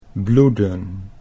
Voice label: "bloeden", Dutch